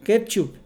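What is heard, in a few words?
"ketchup", Mexican Spanish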